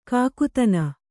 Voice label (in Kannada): "ಕಾಕತನ", Kannada